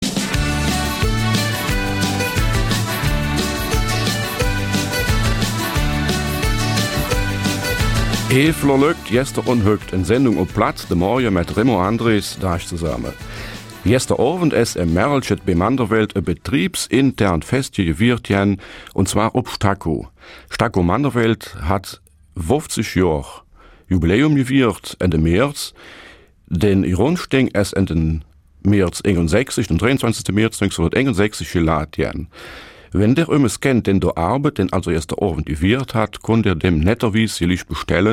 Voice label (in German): Eifeler Mundart; 50 Jahre Staco